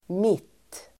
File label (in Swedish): "mitt", Swedish